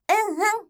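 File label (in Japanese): "unnun", Japanese; henji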